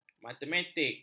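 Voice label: "matematik", Malay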